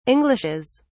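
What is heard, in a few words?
"englishes", British English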